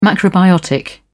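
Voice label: "macrobiotic", British English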